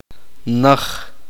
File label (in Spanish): uspanteko lejos